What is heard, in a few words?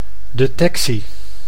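"detectie", Dutch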